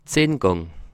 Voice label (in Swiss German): "10 gang", alsace